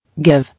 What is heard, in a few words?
"Give", English